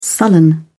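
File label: "sullen", British English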